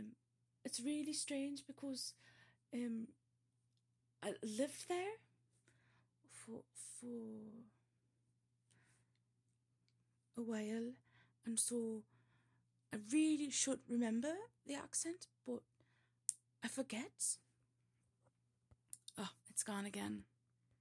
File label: Geordie